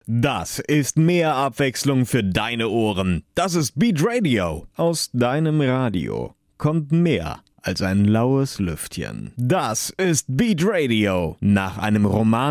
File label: station voice